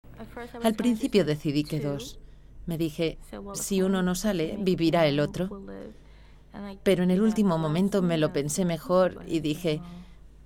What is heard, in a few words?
DOCUMENTAL